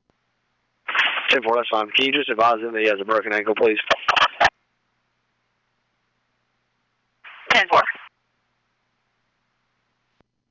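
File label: P25 dispatch radio; NC VIPER P25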